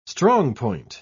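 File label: "strongpoint", British English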